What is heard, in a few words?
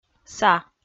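"са", Komi, female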